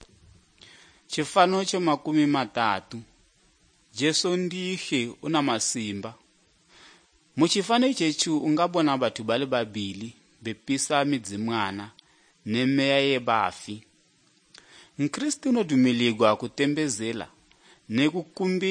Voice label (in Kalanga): good news kalanga lilima, drama